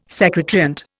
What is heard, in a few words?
"secant", Indian